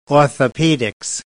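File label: "orthopedics", British English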